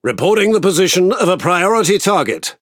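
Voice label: Speech Priority Target, British English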